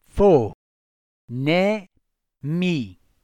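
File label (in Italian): "fonemi", italiano; fo ˈnɛmi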